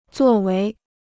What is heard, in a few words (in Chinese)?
"作为", Chinese